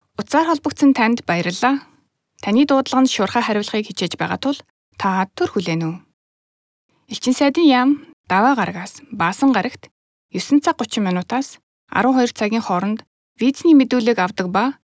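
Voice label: Mongolian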